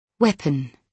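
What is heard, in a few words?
"weapon", British English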